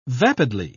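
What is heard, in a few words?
"vapidly", British English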